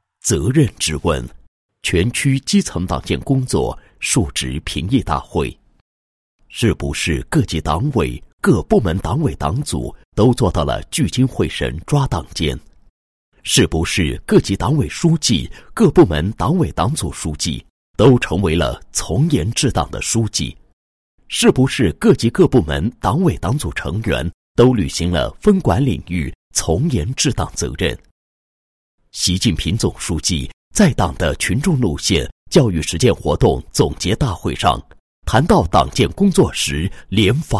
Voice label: 男-党建-基层党建工作述职评议大会, 配音案例

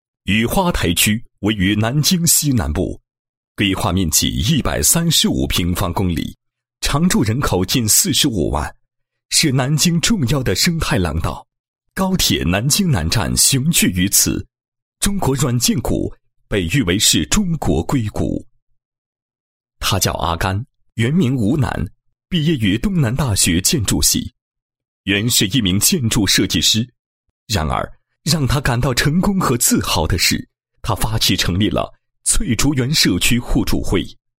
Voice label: 男 政府建设; 雨花台区民政局

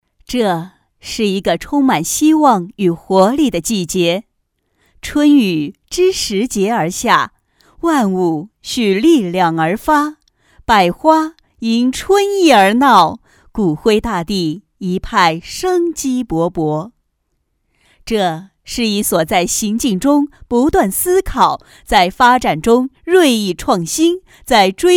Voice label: 女 专题 大气激情, 国语女声配音; 办有温度的教育

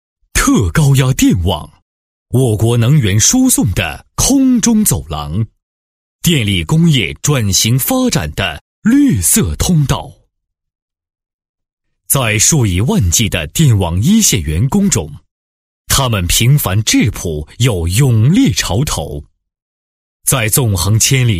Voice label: 男 专题 特高压 大气, 国语男声配音

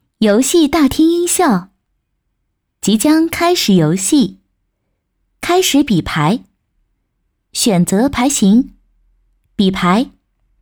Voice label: 女 游戏音效 配音棋牌

